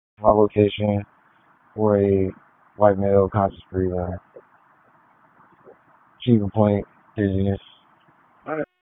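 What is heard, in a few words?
Police District Dispatch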